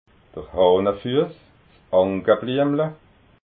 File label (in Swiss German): "hahnenfuss", alsace